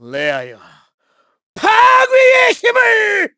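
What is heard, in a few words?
Vox Skill, Korean; KaselB; kingsraid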